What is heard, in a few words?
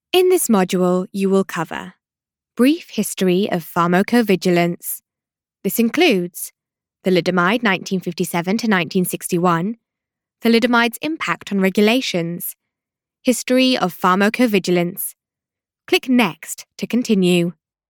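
English, regional, female, eLearning; voice over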